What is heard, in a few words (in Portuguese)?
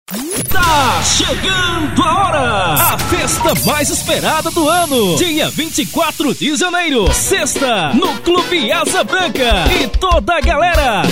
offs locução